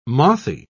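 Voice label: "mothy", British English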